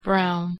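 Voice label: "brown", English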